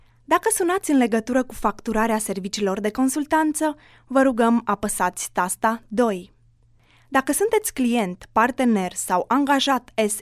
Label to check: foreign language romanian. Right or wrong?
Right